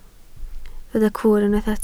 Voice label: "cwr" Welsh

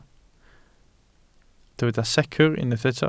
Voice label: "secr" Welsh

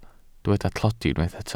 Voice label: "tlodi", Welsh

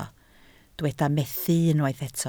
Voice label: "methu" Welsh

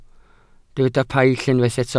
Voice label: "paill", Welsh

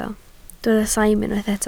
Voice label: "saim", Welsh

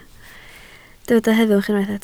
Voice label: "heddwch", Welsh

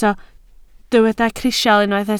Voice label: "crisial", Welsh

